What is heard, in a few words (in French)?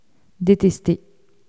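"detester", French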